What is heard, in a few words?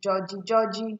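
"judgy judgy", Nigerian Pidgin, female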